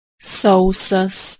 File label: "sausas", Lithuanian